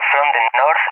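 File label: "from the N", English, SPAIN; DCS; Allied Flight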